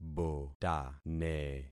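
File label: "botane", concatenated syllables; Affixing experiment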